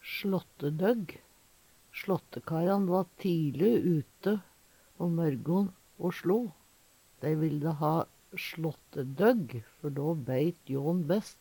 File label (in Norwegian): swlattedogg, Numedalsmål; slåttedøgg